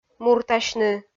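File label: "муртасьны", Komi, female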